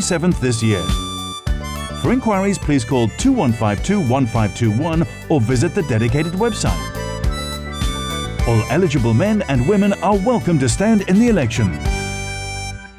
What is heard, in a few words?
radio candidate en; 2015 Kaifong Representative Election Publicity Materials; Candidate Nomination